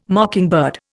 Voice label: "mockingbird", Indian

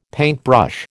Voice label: "paintbrush", American

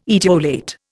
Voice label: "etiolate", Indian

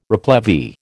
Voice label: "replevy", American

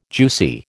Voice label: "juicy", American

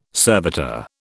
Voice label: "servitor", British

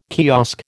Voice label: "kiosk", American

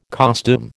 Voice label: "costume", American

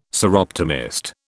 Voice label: "Soroptimist", British

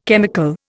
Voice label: "chemical", Indian

